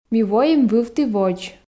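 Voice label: Komi, female; ми воим вывті водз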